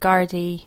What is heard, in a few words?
"Gardai", irish